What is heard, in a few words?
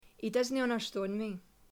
Scots, accents of english